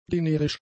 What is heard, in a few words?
"generisch", German; adjectives